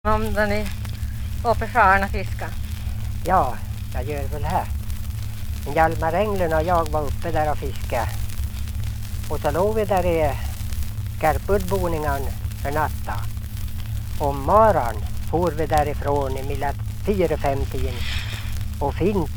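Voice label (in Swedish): Ljustorp Medelpad, dialekter; Folkminnen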